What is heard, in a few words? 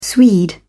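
"swede", British English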